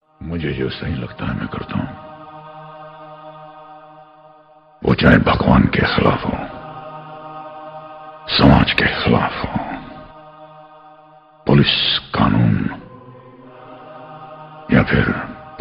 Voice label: dialogue ringtone, bollywood; sarkar